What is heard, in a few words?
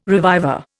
"reviver", Indian